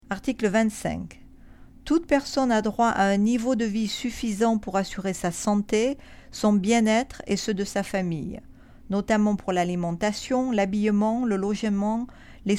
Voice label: French